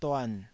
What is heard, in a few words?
"duan3", taiwanese syllable